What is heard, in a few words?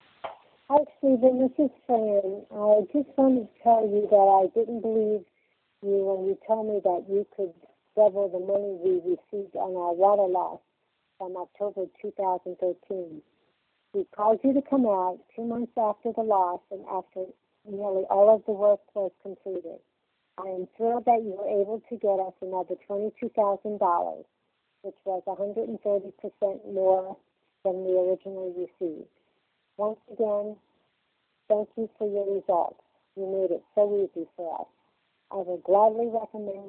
Voicemail, Clients Testimonials